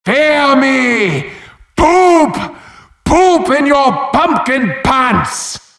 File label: wheel scared; halloween; merasmus